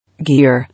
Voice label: "Gear", English